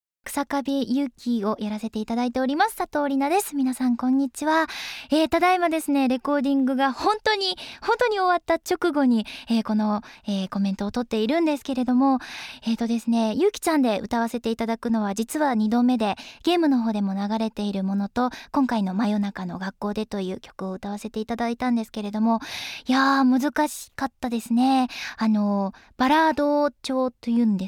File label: Voice Message; ToHeart2